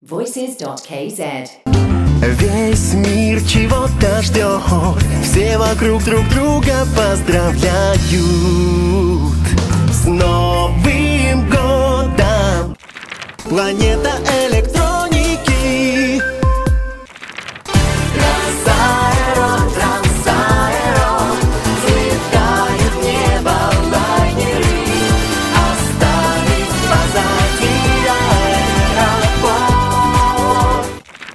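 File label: Russian vocal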